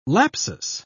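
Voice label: "lapsus", British English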